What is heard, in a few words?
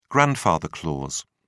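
"grandfather clause", English, British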